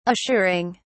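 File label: "assuring", British English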